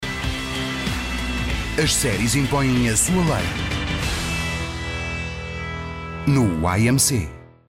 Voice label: portuguese promos